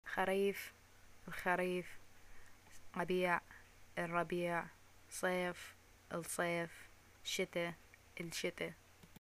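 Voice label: seasons, arabic, Iraqi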